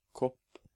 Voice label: "Kopp", Norwegian

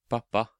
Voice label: "Pappa", Norwegian

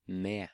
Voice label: "Med", Norwegian

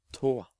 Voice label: "Tå", Norwegian

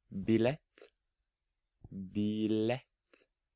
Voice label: "Billett", Norwegian

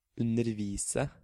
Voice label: "Undervise", Norwegian

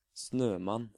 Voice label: "Snømann", Norwegian

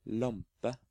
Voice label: "Lampe", Norwegian

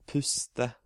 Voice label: "Puste", Norwegian

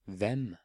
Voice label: "Hvem", Norwegian

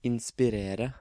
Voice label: "Inspirere", Norwegian

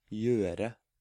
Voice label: "Gjøre", Norwegian

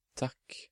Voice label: "Takk", Norwegian

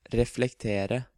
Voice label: "Reflektere", Norwegian

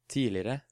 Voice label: "Tidligere", Norwegian

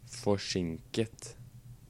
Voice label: "Forsinket", Norwegian

